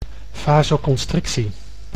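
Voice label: "vasoconstrictie", Dutch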